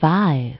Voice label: announce countdown "five"; BlastChamber PSX

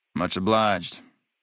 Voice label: male adult gambler asks; FNV

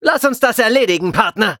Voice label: male; Sonic Forces

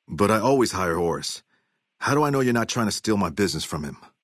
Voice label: male adult gambler asks; FNV

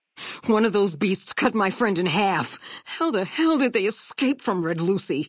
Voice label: female adult greeting

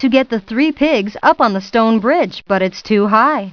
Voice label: too high story narr; Putt Time

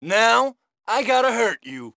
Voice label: COP HISPANIC DRAW BATON; GTA IV

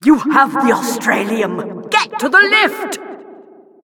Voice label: announcer misc nags

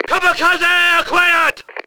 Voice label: 4th killstreak, JP; Codwaw

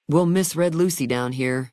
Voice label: female adult greeting; Fnv

